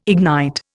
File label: "ignite", Indian